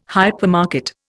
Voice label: "hypermarket", Indian